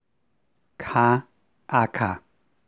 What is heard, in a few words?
k aspirated